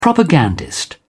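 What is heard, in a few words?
"propagandist", British English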